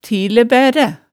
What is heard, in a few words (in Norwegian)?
"tilebæræ", hemsedalsmaalet tale